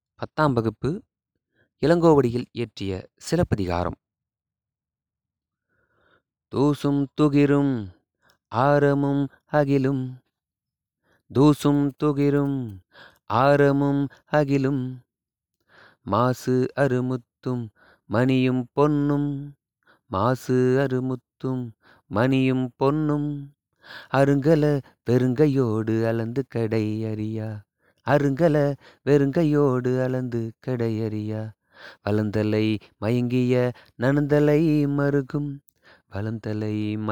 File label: tamil memory poem; namma kalvi